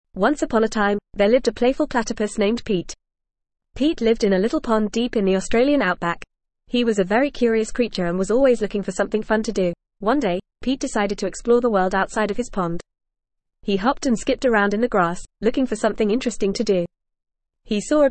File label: ESL Short Stories for Kids FAST reading; Pete The Playful Platypus